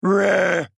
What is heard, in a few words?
Bear exclaim